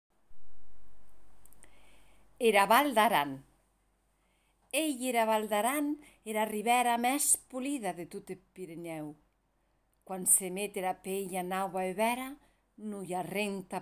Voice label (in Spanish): Poema aranes